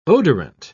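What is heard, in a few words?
"odorant", English, British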